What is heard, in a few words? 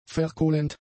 "verkohlend", German; adjectives